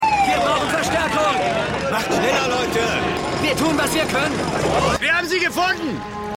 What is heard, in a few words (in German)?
Feuerwehrmaenner, Stimmproben